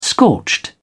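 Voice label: "scorched", British English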